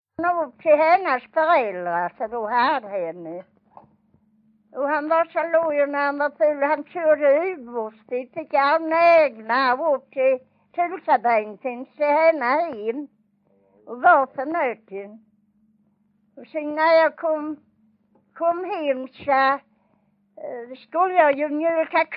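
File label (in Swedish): Vånga Skåne, Svenska sagor dialekter; Folkminnen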